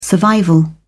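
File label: "survival", British English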